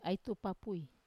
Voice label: "ai tupapui", wamesa